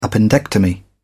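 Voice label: "appendectomy", British English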